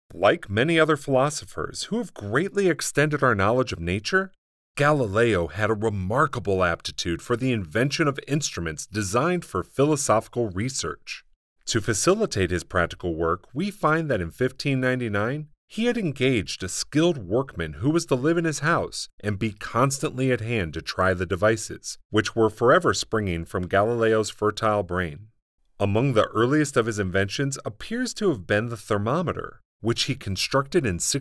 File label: Non-Fiction Narration